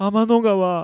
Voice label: sad; Keio-ESD